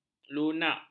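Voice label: "lunak", Malay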